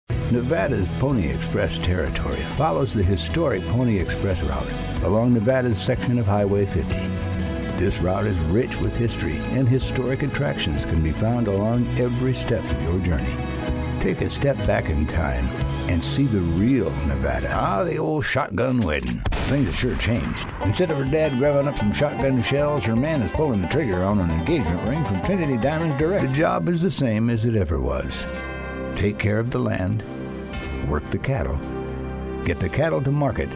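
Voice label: Characters Male, Storyteller, Trustworthy, Mature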